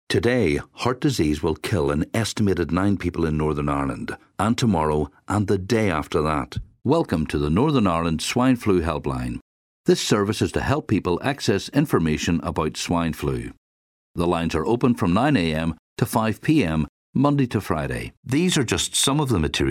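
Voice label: English Irish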